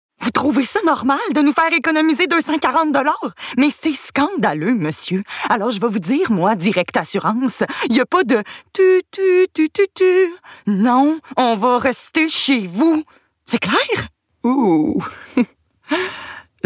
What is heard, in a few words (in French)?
personnage humour annonceur fr qc naturel comedie; direct assurances; promo adult